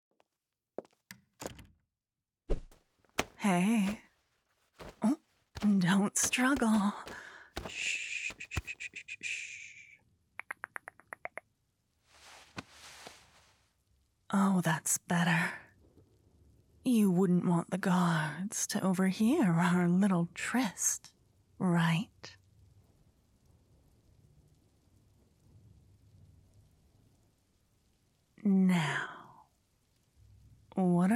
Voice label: Rain Sounds, ASMR; Yandere Rogu; F4F